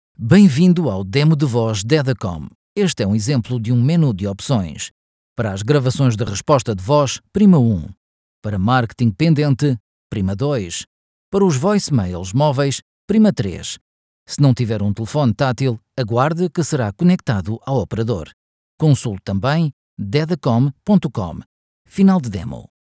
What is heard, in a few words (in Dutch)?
Voice over PORTUGEES Europees man